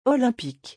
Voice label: "olympique", French